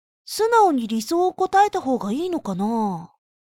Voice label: 普通のOL; 「素直に理想を答えた方がいいのかなぁ？」

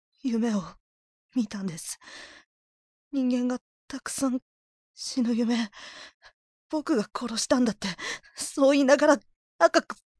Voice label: 無感情で淡々とした青年; リヴィエールの羽根; アシュレイ; 「夢を･･･見たんです、人間が沢山、死ぬ夢･･･僕が殺したんだって･･･そういいながら、赤く染まって〜･･･

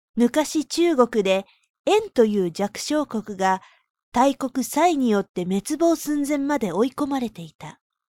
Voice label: 落ち着いた女性ナレ; 「昔、中国でエンという弱小国が、大国サイによって、滅亡寸前まで追い込まれていた」

